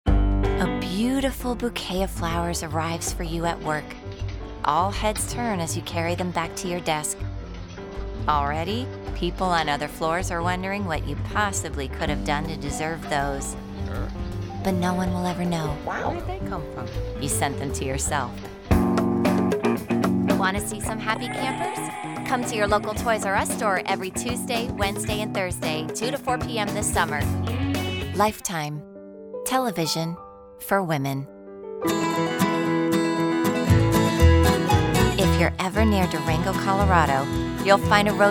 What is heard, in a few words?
Commercial